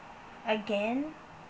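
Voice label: "again", Filipino, female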